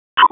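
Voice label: Police Southeast District Dispatch, p25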